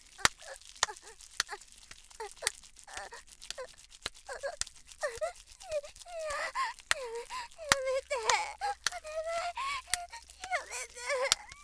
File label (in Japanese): 弱弱しくやめて; 効果音あり